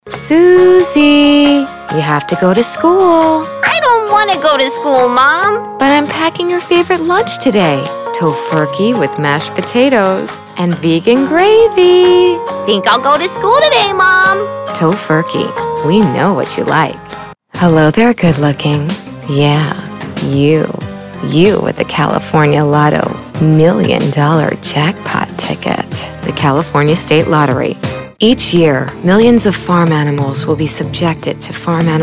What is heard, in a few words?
commercial